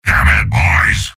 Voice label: negative vocalization, soldier; tf2; garrysmod; mvm